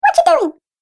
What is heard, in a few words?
Cartoon Game Voice "What You Doing"